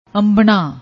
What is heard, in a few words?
"ambana", Punjabi; ਅੰਬਣਾ; انبنا; verb, intransitive